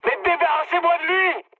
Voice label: aircraft damaged mood high, speech fr